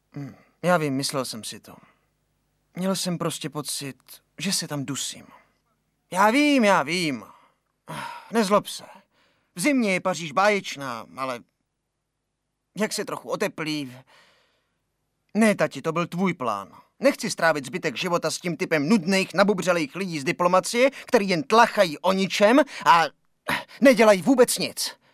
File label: voiceover